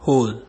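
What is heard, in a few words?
"hole", Nigerian Pidgin, male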